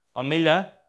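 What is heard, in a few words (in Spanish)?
Voice Acting, Spanish, male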